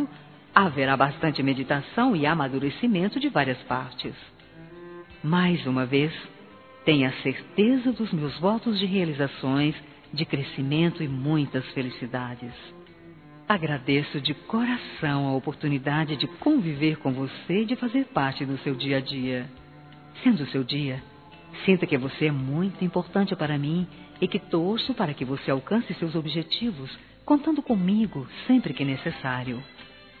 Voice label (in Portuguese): Aniv. de Nora, F